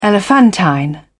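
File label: "elephantine", British English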